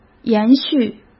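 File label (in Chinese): "延续" Mandarin Chinese; chinese-flashcards; hsk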